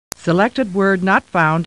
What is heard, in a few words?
Star Trek Computer Voice